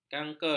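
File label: "kanker", Malay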